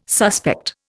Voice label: "suspect", Indian

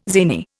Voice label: "zany", Indian

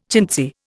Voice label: "chintzy", Indian

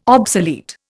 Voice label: "obsolete", Indian

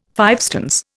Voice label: "fivestones", Indian

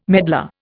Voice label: "medlar", Indian

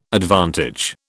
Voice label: "advantage", British

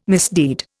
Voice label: "misdeed", Indian

